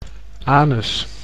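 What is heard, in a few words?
"anus", Dutch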